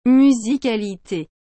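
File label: "musicalite", French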